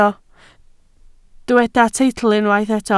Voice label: "teitl", Welsh